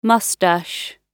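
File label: "mustache", British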